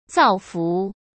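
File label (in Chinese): "造福", Mandarin Chinese; hsk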